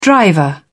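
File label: "driver", British English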